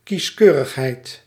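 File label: "kieskeurigheid", Dutch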